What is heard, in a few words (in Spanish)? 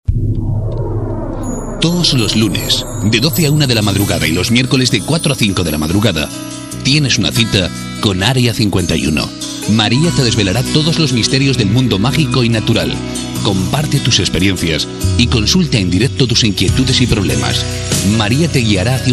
locutor